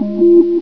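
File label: "bloop", male synthesized voice; vox; source engine; hl1; video games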